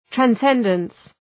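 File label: "transcendence", English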